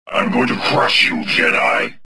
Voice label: anger; XBOX; galak

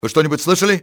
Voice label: did you hear something, russian soldier; XBOX

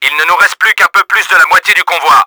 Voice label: HALF LEFT, french; Battle Engine Aquila; XBOX

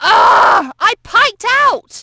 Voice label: piked, negative; Splashdown; XBOX; Brit

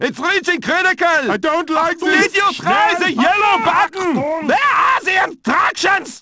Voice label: Panic; Prisoner Of War

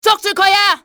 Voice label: get him, cantonese; XBOX; enemy

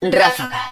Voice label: hud blaster, Spanish

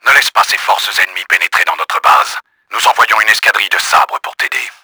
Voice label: ENGAGE TANKS, french; Battle Engine Aquila; XBOX

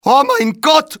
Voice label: my god, male; XBOX

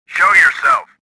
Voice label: suspicious; swamp

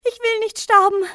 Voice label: dont wanna die, civ fmale; XBOX

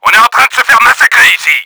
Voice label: TAKING BEATING, french; Battle Engine Aquila; XBOX

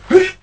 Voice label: man attack; Pirates Of The Caribbean; DUEL

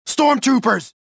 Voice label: suspicious, prisoner

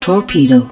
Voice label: hud torpedo launcher, english; Battle Engine Aquila; XBOX

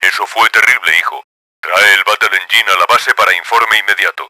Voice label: angry, Spanish; kramer; Battle Engine Aquila; XBOX

